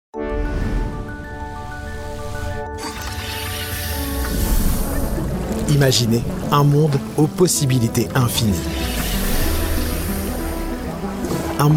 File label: Spot TV; SodaStream Canada 2023